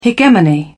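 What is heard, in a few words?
"hegemony", British English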